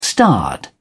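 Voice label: "starred", British English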